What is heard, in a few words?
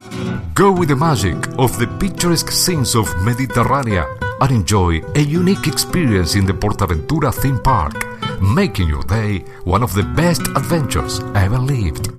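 Latin American Spanish English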